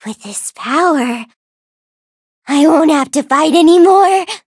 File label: levelup en; cookie run